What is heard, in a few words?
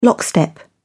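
"lockstep", English, British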